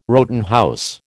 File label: "Rowton house", American